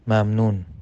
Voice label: "Merci", Farsi